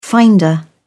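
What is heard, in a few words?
"finder", British English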